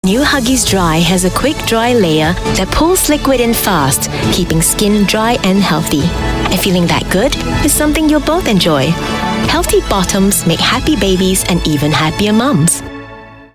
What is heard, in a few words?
Asian English dry; Huggies